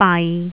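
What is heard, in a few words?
"bai6", Cantonese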